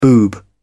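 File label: "boob", British English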